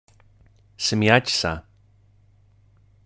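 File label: "smiať sa", Slovak; pronunciation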